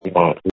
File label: call recording